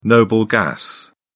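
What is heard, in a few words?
"noble gas", British English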